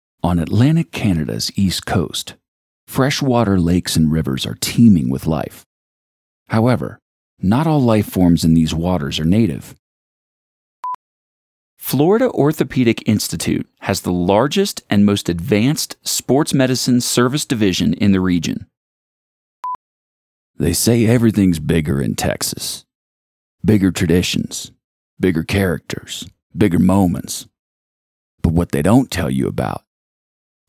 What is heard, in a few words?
VO Highlights